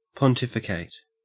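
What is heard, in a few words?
"pontificate", Australian English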